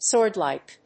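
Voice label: "sword-like", English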